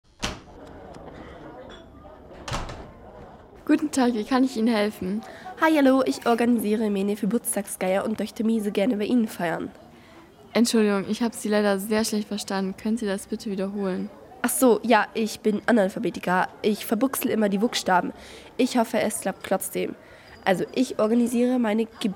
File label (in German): Hörspielworkshop Pizzeriadialog; Schulradio; Simssee Welle